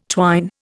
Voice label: "twine", Indian